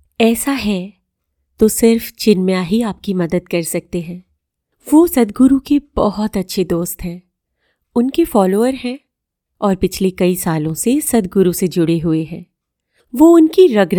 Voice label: Audio Drama character; HINDI